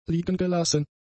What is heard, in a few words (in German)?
"liegengelassen", German; adjectives